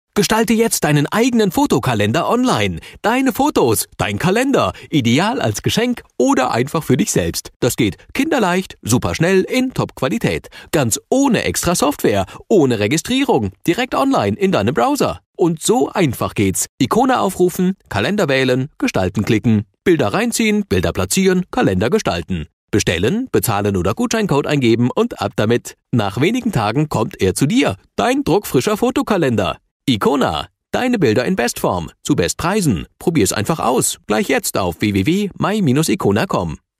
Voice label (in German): sprecher produktfilm; fotokalender